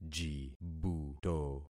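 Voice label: "jibuto", concatenated syllables; Affixing experiment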